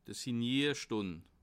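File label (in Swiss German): "signierstund", Bas Rhin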